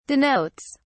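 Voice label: "denotes", British English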